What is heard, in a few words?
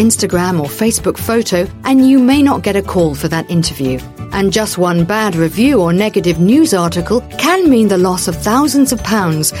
UK English Corporate Online Imaging